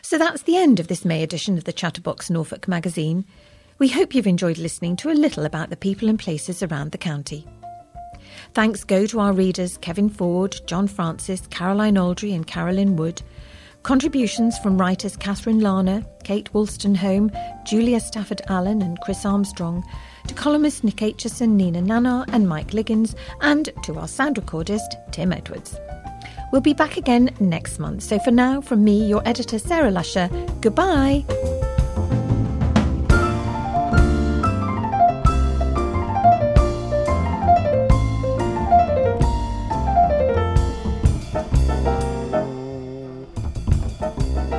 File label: Closing announcement; Chatterbox; Norfolk Magazine